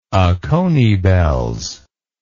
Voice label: "oconee bells", English